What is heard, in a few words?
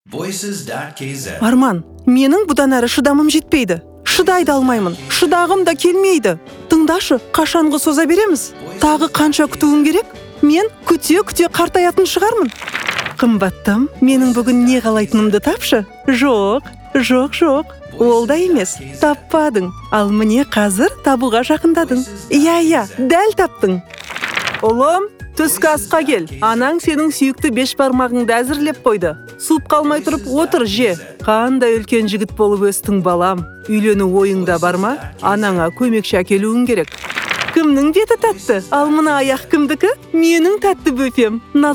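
kazakh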